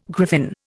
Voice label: "griffin", Indian